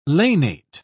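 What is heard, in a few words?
"lanate", British English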